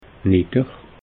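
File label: "nidder", Alsatian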